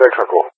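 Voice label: "Texaco", English speech; CallSign; Allied Flight; DCS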